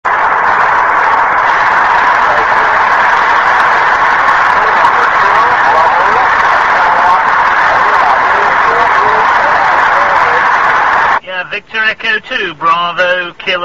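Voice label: amateur radio contact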